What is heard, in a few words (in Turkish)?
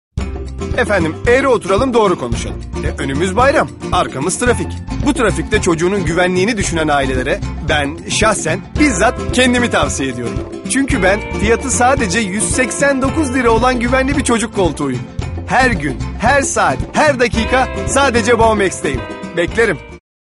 enerjik esprili, seslendirme